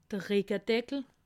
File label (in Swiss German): "rickedeckel", alsace